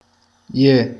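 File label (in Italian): io partannese, Sicilian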